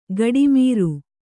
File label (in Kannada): "ಗಡಿಮೀರು", kannada